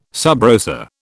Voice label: "sub rosa", British